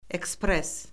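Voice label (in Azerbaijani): "ekspres", Azerbaijani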